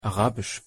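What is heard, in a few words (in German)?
"arabisch", German; pronunciation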